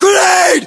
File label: inform incoming grenade, US